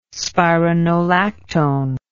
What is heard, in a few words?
"spironolactone", english